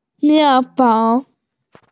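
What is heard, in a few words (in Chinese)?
"mian4fen3", Mandarin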